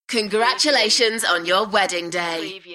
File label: UK female Congratulations on your wedding day; beanstalk audio